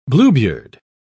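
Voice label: "bluebeard", British English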